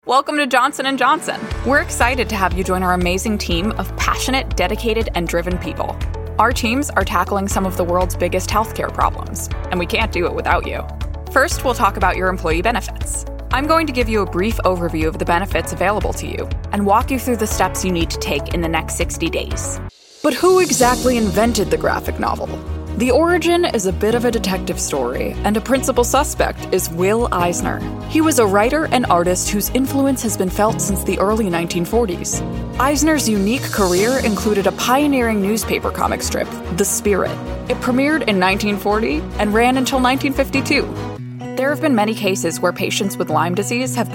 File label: narr